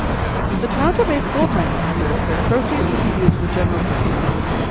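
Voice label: noisy, BUS; CHIME simu; CleanMel; single-channel Mel-spectrogram denoising and dereverb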